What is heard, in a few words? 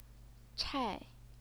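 "chai", Mandarin Chinese, fourth tone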